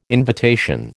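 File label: "invitation", American English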